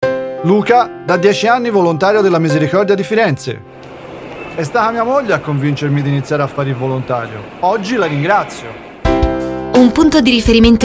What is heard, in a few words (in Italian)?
campagna spot radiofonico; firenze